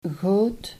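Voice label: "goot", Dutch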